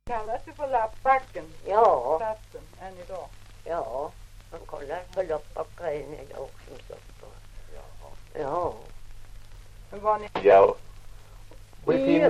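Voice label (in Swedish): Ovansjö Gästrikland, dialekter, Svenska sagor; Folkminnen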